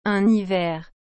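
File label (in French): "un hiver", French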